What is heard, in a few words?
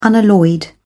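"unalloyed", British English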